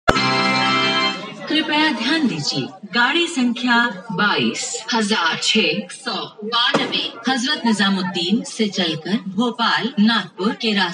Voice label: indian railway train announcement; live popular indian railway latest train announcement